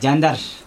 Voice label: "beard", Chug